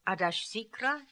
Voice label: "Adashsikra", pronounciations; Fourth Way; Beelzebub